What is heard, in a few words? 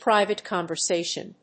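"private conversation", English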